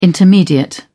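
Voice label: "intermediate", British English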